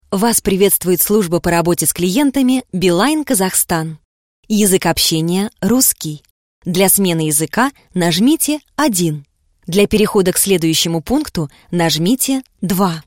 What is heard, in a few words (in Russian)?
golosovoe menu; Beeline kz; ivrplus